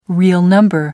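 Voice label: "real number", British English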